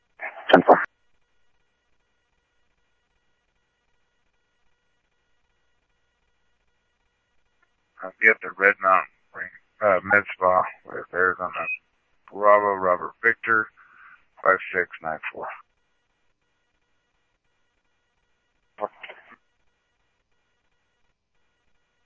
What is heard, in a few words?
Sheriff, scanner audio; SoutheastCenMesa; MCSO - EAST Sheriff-EastCnty